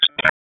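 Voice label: Raleigh Police Special Operations Tactical, p25; wake p25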